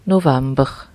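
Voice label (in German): "Novamber" Alsatian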